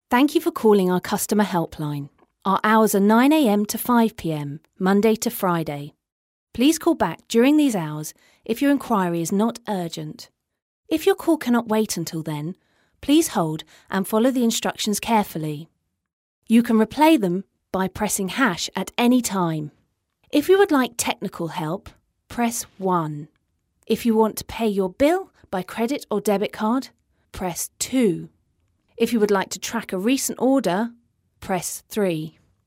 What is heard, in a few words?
IVR; Voice123; professional voice over artist